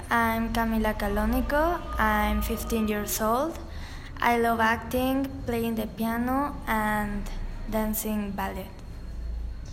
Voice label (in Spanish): Inglés